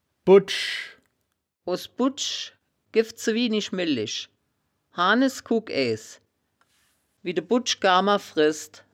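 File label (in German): "budsch", wittlicher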